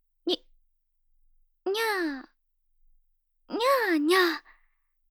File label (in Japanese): 「に、にゃあにゃあ、にゃあにゃあ♪」 猫; 黒崎オノマトペ